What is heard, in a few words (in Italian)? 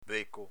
"beco", dialetto veneto